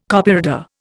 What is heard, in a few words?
"Copyreader", Indian